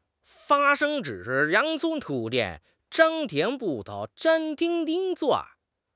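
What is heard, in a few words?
"fa1 sheng1", Mandarin Chinese; HSK4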